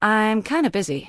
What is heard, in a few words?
Human Female Warrior NPC Pissed